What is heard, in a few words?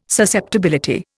"susceptibility", Indian